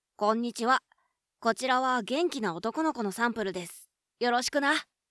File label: boy